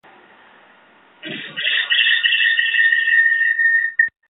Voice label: Call recording